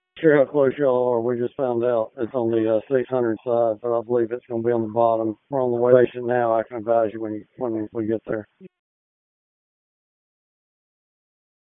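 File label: Public Safety Tactical, p25; wake p25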